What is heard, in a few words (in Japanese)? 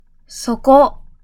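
"そこ", Japanese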